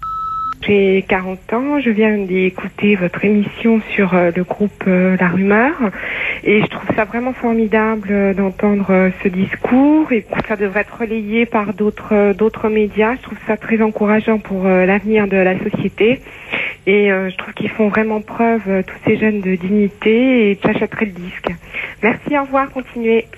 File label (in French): repondeur